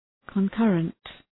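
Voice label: "concurrent", English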